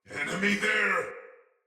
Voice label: See Player Shout, Battle; Sinecure Soldier

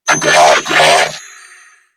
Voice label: Female, Grineer; New War; Narmer; Patrol

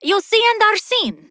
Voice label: Ost Fem en; Cetus

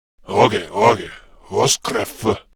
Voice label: Patrol, Male

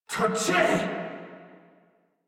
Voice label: Surprised Crewmen; Warframe; Corpus Crewmen; Crewman D

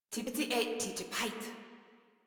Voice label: Patrol Female; Warframe; Modular Corpus Female

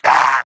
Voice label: pain, wolf gangster; Warframe; Grineer; Battle Barks

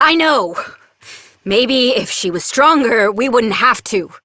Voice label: Operator Voice, en; Warframe; New War

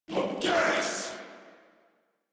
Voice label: Attack Sniper, en; Warframe; Corpus Amalgam Sniper

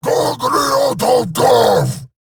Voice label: Attack, en

